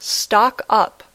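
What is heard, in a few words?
"stock up", English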